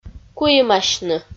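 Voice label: "куимасьны", Komi, female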